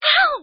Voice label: ow female